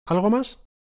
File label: conversation